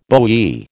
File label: "bowie", American